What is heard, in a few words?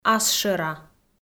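"асшӧра", Komi, female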